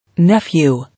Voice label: "Nephew", English